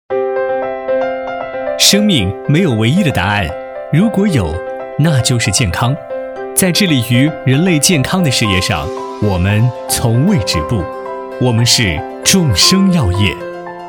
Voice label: 男 专题 年轻自然; 众生药业

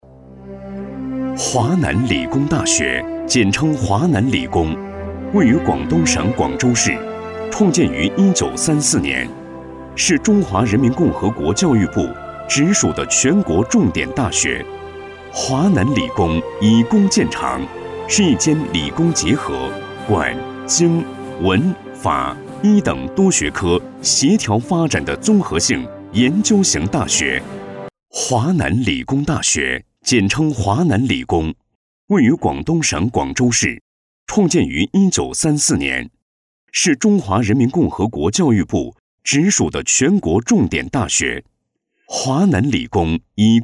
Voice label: 男-专题-大学; 华南理工大学

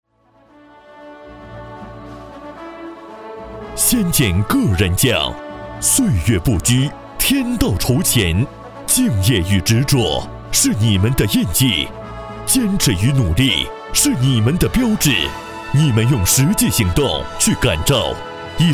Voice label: 男-颁奖

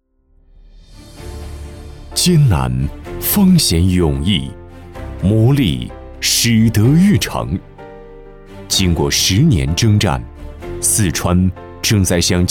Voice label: 男 专题 浑厚震撼

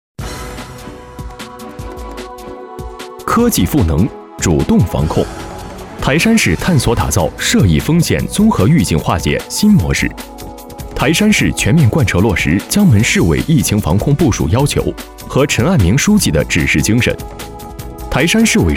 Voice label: 男 新闻感汇报

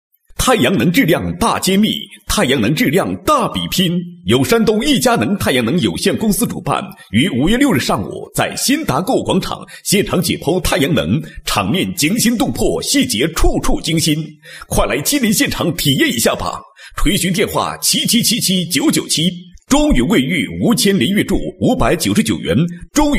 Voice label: 男 高音 广告; 太阳能质量大揭秘; 国语男声配音

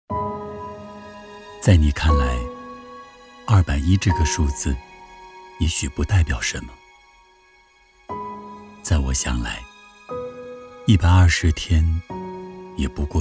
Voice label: 男 独白 太阳能 舒缓 大气 走心

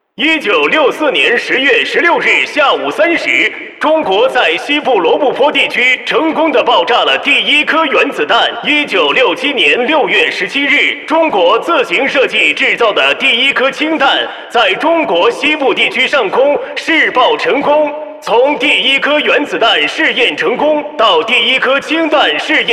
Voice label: 男 模仿 六七十年代广播 原子弹爆炸新闻

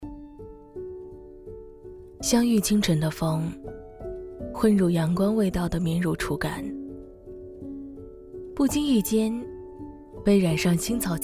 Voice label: 女 清冷独白; 混入清晨的风

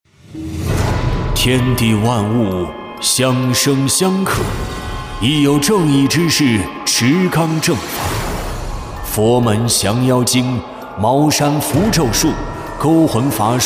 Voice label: 男 密室 惊悚旁白

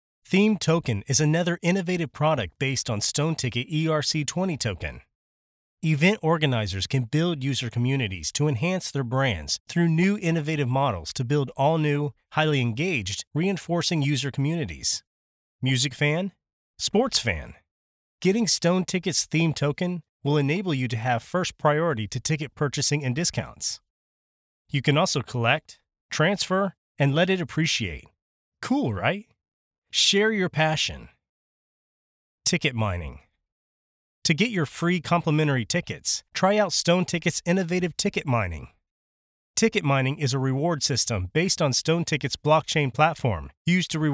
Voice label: 英文

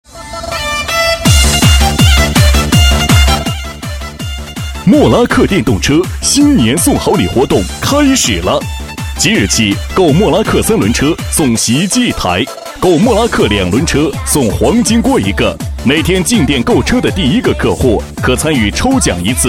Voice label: 男 广告 电动车 激情震撼

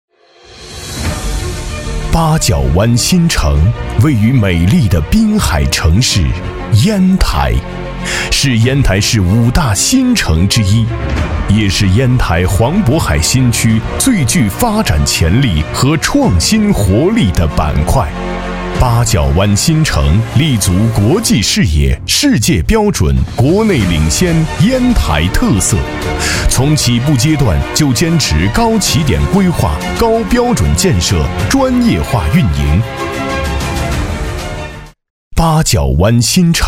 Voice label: 男 宣传片 大气饱满 舒缓 八角湾新城招商

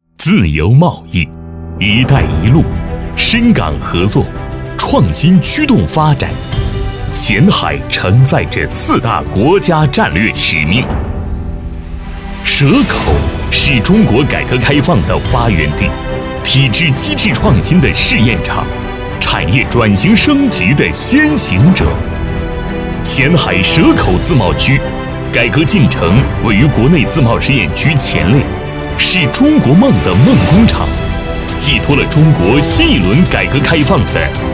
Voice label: 男 专题; 前海蛇口自贸区

